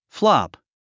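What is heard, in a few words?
"flop", English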